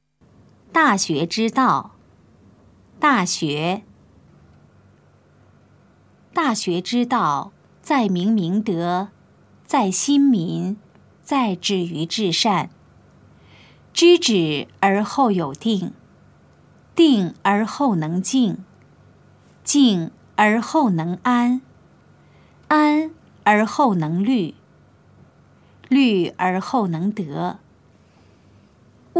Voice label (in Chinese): putonghua; 大學之道; 普通話